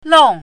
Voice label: "long4", Chinese pinyin